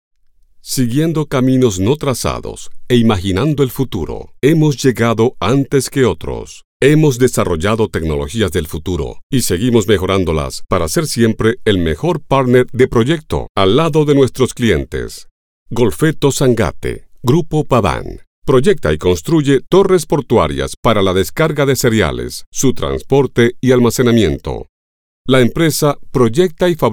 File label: Corporate